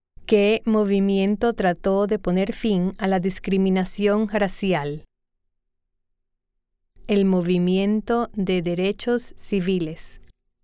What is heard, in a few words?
Spanish